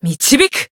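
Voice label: attack, Japanese; clarte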